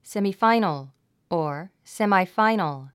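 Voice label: "semifinal", English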